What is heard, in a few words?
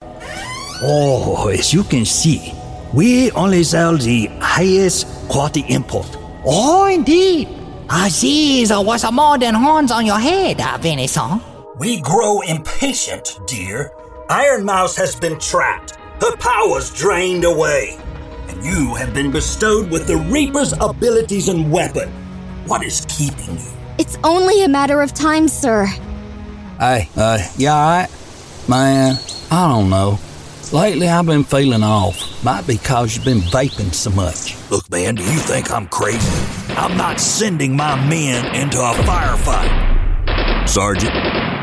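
US English, Video game; GoLocalise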